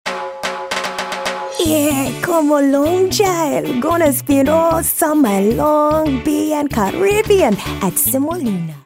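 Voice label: jamaican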